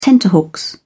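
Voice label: "tenterhooks", British English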